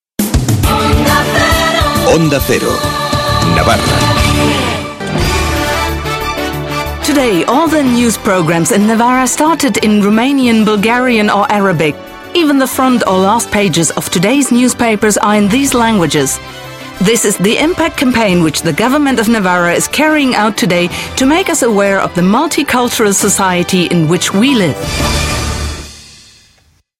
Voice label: advert